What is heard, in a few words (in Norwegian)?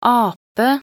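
"ape", Norwegian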